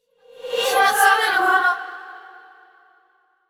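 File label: desperate, voice; yamiko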